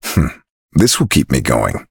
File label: level up, en; cookie run